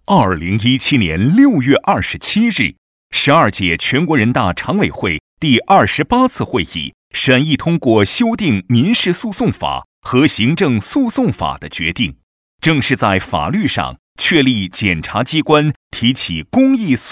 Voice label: 男 检察院

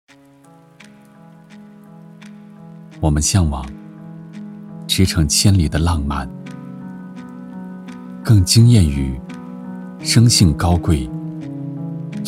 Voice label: 男 产品宣传 素人感 说话 叙述 平视

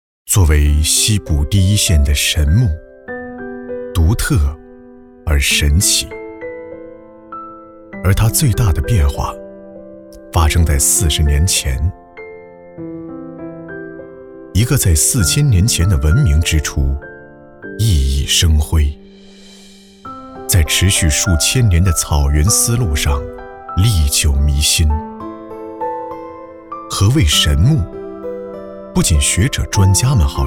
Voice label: 男 纪录片 讲述; 何以神木

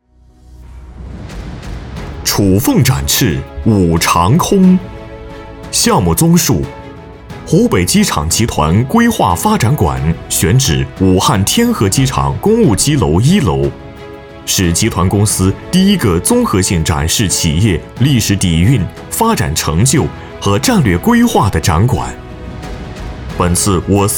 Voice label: 男 专题宣传 大气力度 机场集团

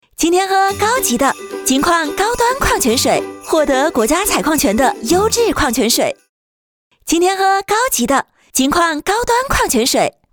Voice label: 女 广告 矿泉水

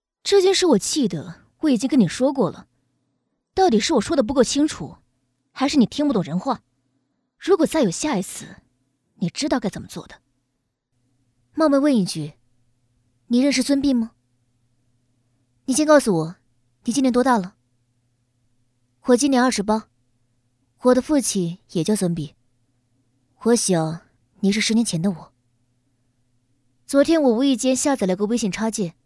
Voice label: 女 模仿 御姐

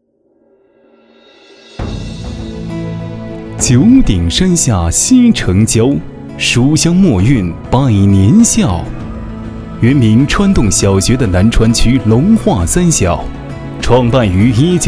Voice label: 男 专题 学校

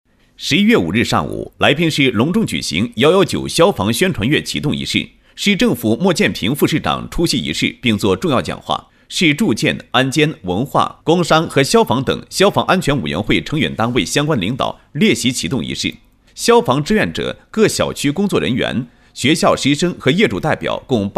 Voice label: 男 新闻 119 消防宣传月启动仪式

